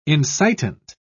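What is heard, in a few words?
"incitant", British English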